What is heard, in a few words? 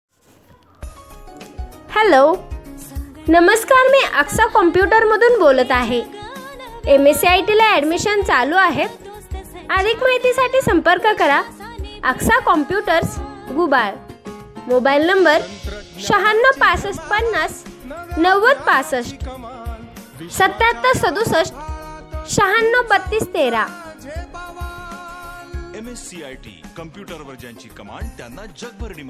voice call